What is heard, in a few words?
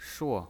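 "shuo", Mandarin Chinese pinyin, fourth tone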